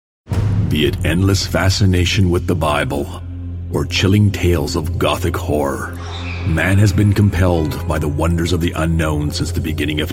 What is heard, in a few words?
Promo